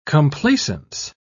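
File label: "complacence", British English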